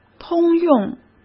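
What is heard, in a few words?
"通用", Mandarin Chinese; chinese-flashcards; hsk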